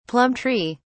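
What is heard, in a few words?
"plum tree", British English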